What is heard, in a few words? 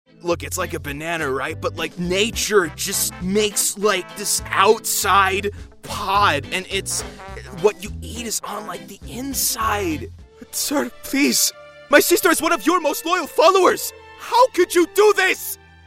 Characters, Male voices